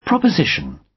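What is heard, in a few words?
"proposition", British English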